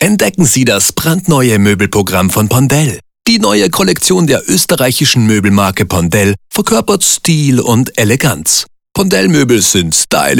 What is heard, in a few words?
radio